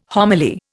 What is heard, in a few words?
"homily", Indian